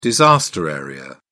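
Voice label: "disaster area", British English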